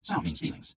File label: male